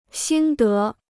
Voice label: "心得", Chinese